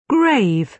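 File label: "grave", British English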